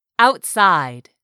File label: "OUTSIDE", English; 3000 words